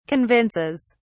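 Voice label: "convinces", British English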